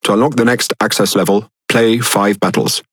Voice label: Speech Access Level Unlock, EN GB; World Of Warships